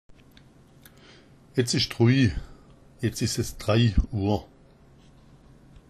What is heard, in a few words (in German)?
"drui", schwaebisch